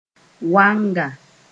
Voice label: "wanga", Mooré

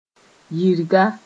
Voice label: "yirga", Mooré

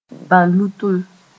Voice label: "bã-lʋtem", Mooré; epilepsy

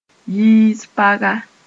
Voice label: "yiis paga", Mooré

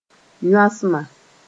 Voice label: "yõasma", moore

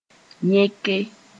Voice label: "yẽke", Mooré

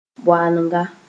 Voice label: "boalenga", Mooré; in a perfect shape

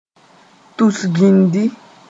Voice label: "tus-gĩndi", moore

